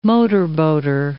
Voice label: "motorboater", English